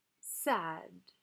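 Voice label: "Sad", English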